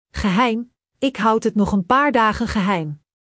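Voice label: "geheim", Dutch; Spelling Gr5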